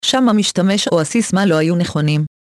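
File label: Username Or Password Incorrect, Hebrew; OpenCore; AXEFIAudio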